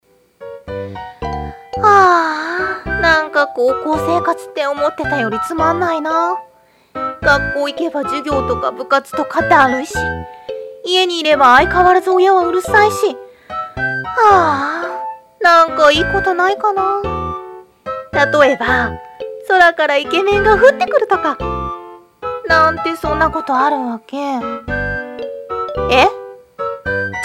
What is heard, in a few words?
voice anime